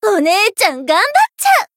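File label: growth, Japanese; ilulu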